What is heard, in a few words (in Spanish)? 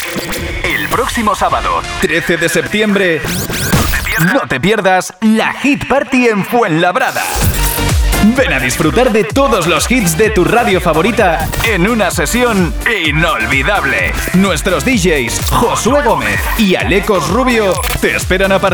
publicidad; Hit Party Fuenlabrada